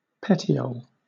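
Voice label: "petiole", British English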